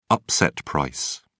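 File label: "upset price", British English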